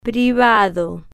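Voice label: "privado", slow, spanish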